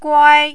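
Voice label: "guai", first tone, Mandarin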